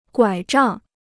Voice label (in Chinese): "拐杖", Chinese; 拐杖 (guǎi zhàng): crutches